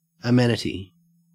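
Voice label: "amenity", Australian English; pronunciations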